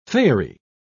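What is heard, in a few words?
"faery", British English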